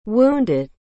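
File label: "wounded", English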